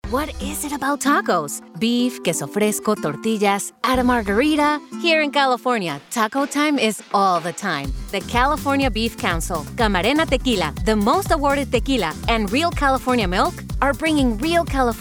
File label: sample audio broadcast